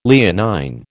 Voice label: "Leonine", American